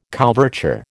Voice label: "couverture", American

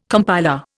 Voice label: "compiler", Indian

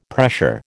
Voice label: "pressure", American

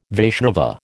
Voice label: "Vaishnava", American

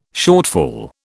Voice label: "shortfall", British

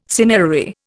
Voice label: "cinerary", Indian

